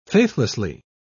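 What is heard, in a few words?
"faithlessly", British English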